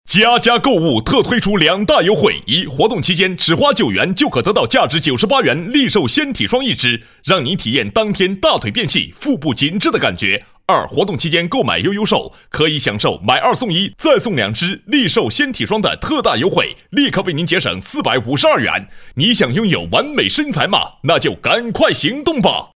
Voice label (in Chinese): 男7 医疗广告 减肥药活动广告, 国语男声配音